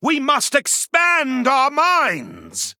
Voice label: Male Blood Elf

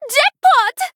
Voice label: Female Draenei Attack

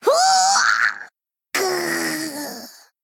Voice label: Female Gnome Death

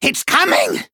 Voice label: Male Gnome Attack

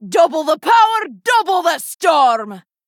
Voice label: Female Dwarf Play

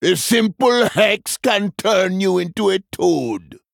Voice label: Male Troll Threaten

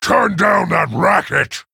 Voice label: Male Tauren Play

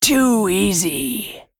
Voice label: Female Worgen Attack

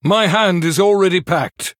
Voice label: Male Human ERROR HAND FULL

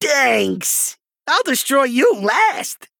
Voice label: Male Goblin Thanks

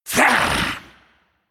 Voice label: Male Naga Attack

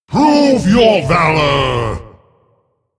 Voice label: Male Titan Keeper Attack

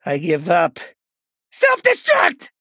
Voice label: Male Goblin, Concede

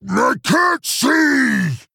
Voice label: Male Quilboar Attack

